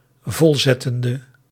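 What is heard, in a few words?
"volzettende", Dutch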